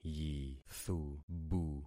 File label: "yithubu", concat syllables; Affixing experiment